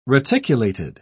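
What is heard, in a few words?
"reticulated", English, British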